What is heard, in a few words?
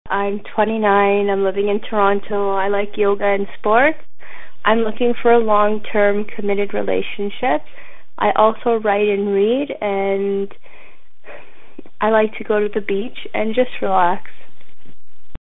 Girl